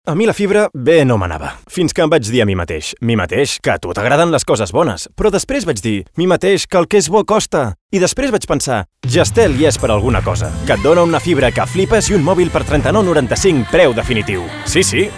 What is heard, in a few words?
Commercial Catalan; jazztel